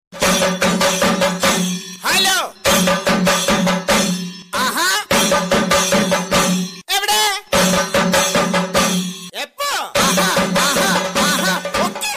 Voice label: hello tamil